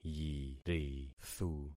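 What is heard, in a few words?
"yirithu", concatenated syllables; Affixing experiment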